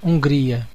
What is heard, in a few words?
"hungary", pt BR; gcompris; geography